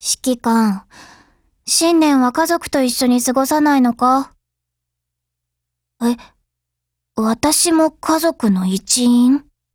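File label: new year, Japanese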